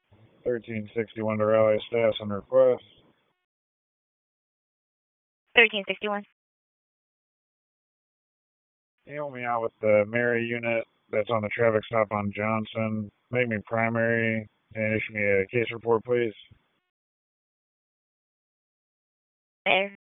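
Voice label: Police Southeast District Dispatch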